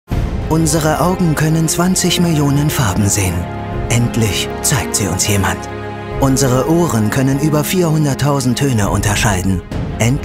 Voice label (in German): Kraftvoll; Fremdtake; Samsung